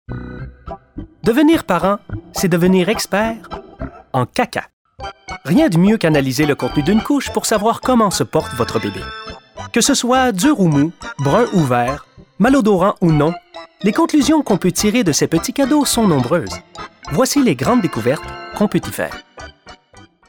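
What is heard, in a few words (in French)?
narration corporative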